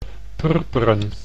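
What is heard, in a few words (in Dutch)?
"purperen", Dutch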